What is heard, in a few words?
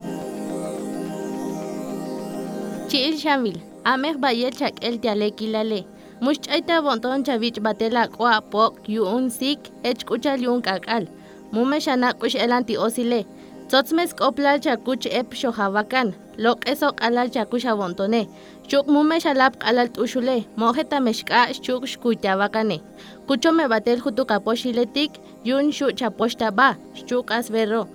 TSOTSIL